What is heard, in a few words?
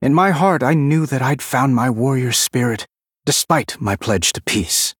Male Human Victory; Anduin; Mission8 Victory